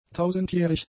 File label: "tausendjährig", German; adjectives